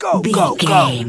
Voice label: voice male soldier go go go